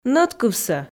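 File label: "нӧдкывсӧ", Komi, female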